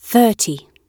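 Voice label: "thirty", British English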